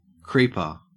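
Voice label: "creeper", Australian English; pronunciations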